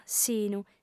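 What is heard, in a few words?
"sinu", female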